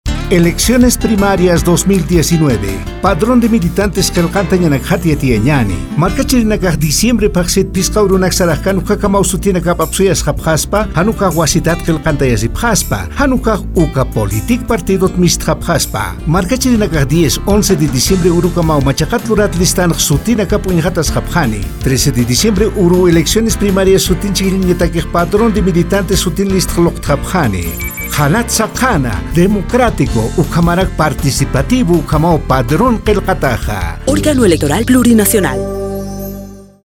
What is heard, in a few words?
padron aymara